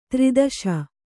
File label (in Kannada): "ದುರ್ದೆಶೆ", Kannada